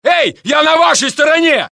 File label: Russian; mohaa